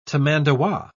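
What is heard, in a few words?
"tamandua", British English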